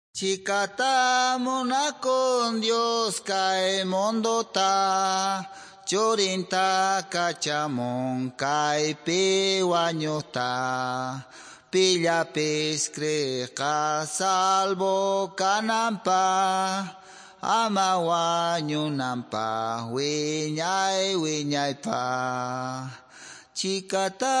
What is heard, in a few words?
mensajes y cantos quechua south bolivian chuquisaca; words of life